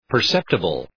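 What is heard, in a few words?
"perceptible", English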